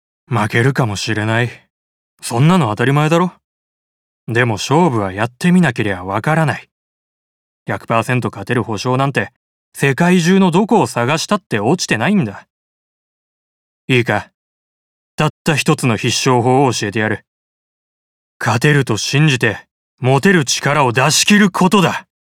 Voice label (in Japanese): 選手を奮い立たせるコーチ30代